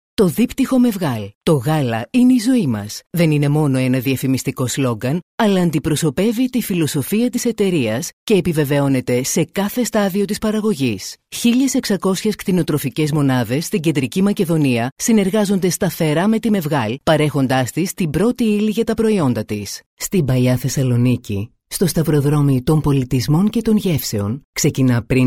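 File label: Greek narration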